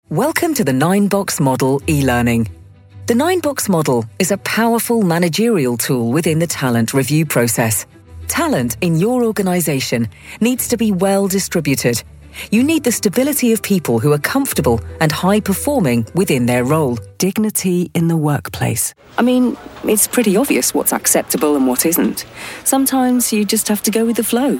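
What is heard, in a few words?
elearning, female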